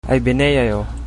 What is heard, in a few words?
goodbye, chabacano